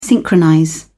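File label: "synchronize", English, British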